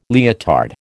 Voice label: "leotard", American